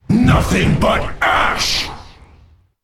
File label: Male Night Elf Attack